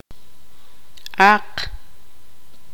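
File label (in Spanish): "cerdo", Tz'utujil, female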